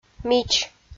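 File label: "мич" Komi, female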